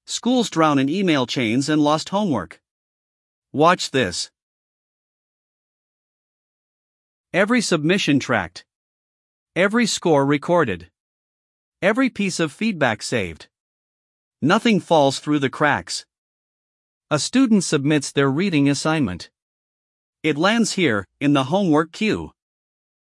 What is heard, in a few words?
narration